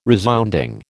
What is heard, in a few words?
"resounding", American